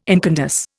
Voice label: "incandesce", Indian